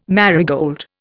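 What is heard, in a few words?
"marigold", Indian English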